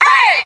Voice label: hey nongender